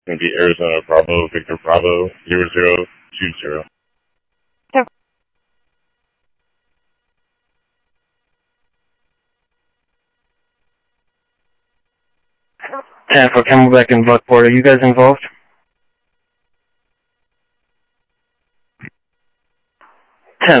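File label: police radio dispatch, Scottsdale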